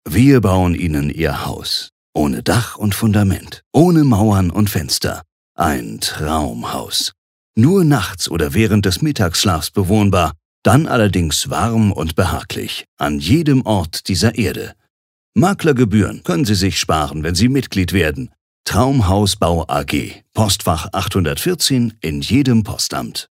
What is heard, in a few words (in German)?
Werbung Kraftvoll